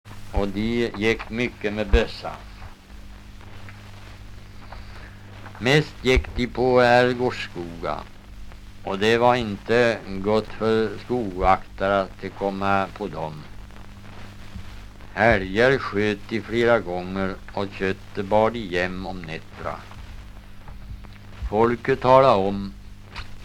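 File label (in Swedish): Riala Uppland, dialekter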